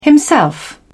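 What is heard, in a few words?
"himself", British English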